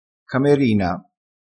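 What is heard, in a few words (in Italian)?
"camerina", vocabolario dialettale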